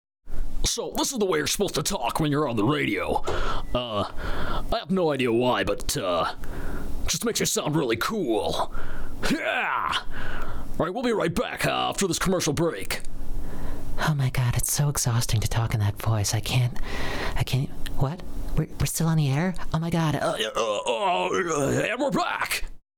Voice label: badly compressed radio, as soon as someone stops talking, you hear the hiss of the room and its equipment as though it's suddenly as loud as someone's voice